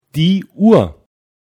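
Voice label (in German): "uhr", German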